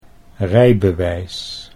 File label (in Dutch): "rijbewijs", Dutch